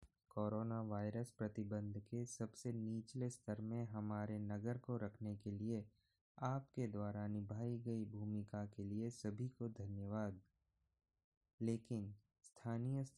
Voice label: hindi